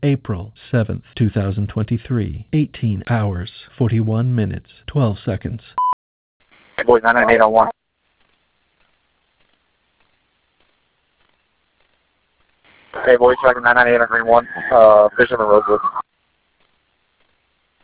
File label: Radio Traffic